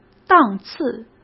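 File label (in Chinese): "档次", Mandarin Chinese; hsk; chinese-flashcards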